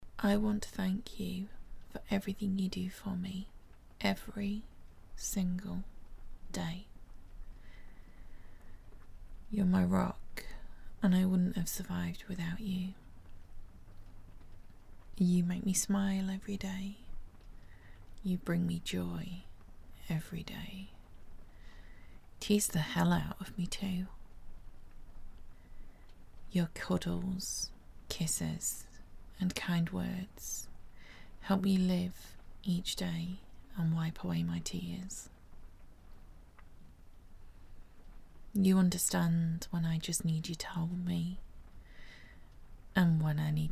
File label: F4M Unscripted Ramble Whispers Desires Gentle British Accent; Happy Fathers Day